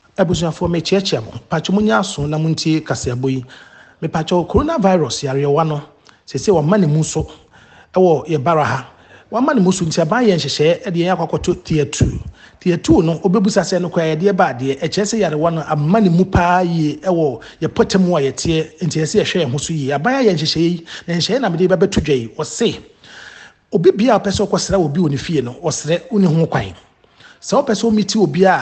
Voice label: ghanaian